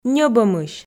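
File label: "ньӧбӧмысь", Komi, female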